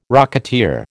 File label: "rocketeer", American